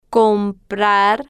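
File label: "comprar", spanish, slow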